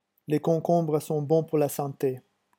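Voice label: "les concombres", French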